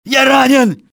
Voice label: im hit, russian soldier; enemy; XBOX